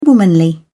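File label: "womanly", British English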